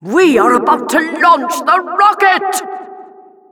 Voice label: announcer rocket warnings